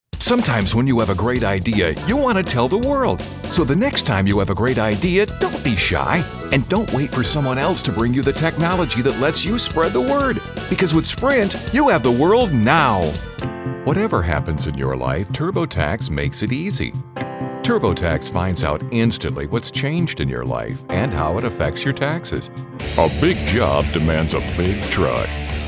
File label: All Commercial